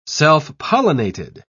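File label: "self-pollinated", British English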